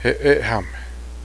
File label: mare, cheyenne